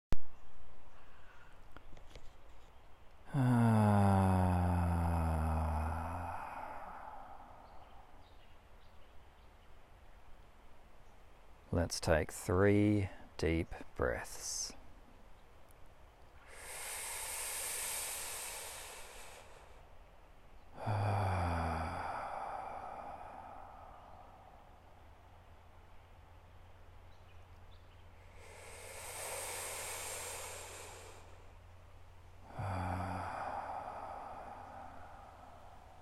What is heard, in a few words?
de-stress forest meditation